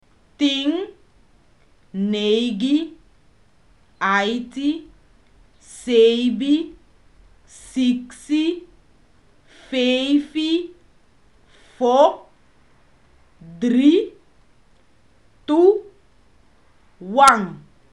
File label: backwards, Sranan; PeaceCorps